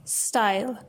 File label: "style", en; grade5